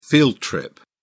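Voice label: "field trip", English, British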